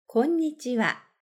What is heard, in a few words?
"konnichiwa", japanese